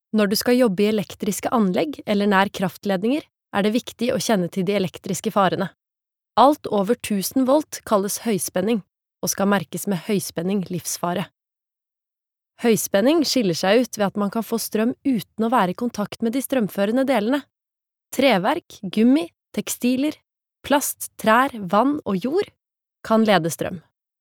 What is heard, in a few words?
Norwegian elearning, norwegian, female